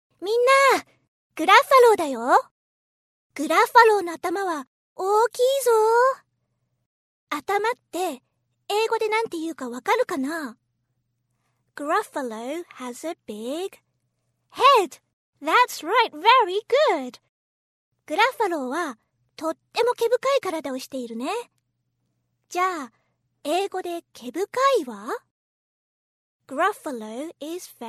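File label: Japanese, Narrative; GoLocalise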